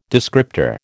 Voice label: "descriptor", American

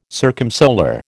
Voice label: "circumsolar", American

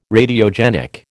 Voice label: "Radiogenic", American

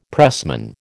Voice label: "pressman", American

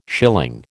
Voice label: "schilling", American

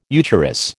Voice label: "eucharis", American

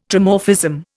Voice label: "Trimorphism", Indian

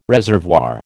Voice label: "reservoir", American

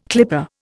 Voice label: "clipper", Indian

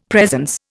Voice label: "presence", Indian; Dictionary

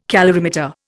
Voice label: "calorimeter", Indian

